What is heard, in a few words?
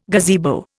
"gazebo", Indian